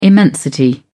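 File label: "immensity", British English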